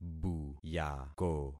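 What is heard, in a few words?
"buyako", concatenated syllables; Affixing experiment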